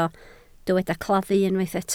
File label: "claddu", Welsh